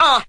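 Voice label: "uh", male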